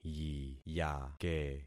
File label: "yiyake", concat syllables; Affixing experiment